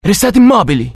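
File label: curious, Italian; dialogue; mohaa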